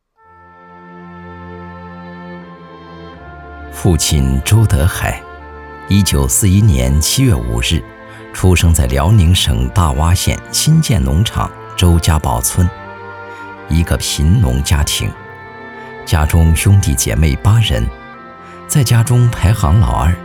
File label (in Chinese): 男 悼词; 记我们的父亲周德海